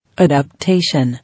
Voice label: "Adaptation", English